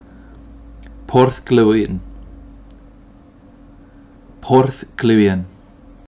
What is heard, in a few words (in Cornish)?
"Porth Gluwyan", Place Names Pronunciation